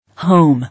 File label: "Home", English